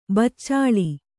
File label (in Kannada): "ಬೆಚ್ಚಳ", Kannada